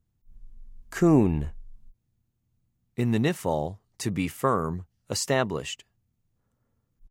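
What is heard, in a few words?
"כּוּן", Hebrew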